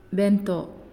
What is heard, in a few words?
"Star", Naasioi